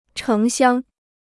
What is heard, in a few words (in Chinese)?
"城乡", Chinese